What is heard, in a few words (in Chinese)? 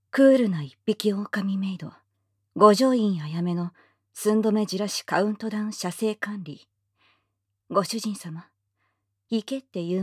标题 朗读; 冷酷孤狼女仆五条院绫芽的寸止焦躁射精管理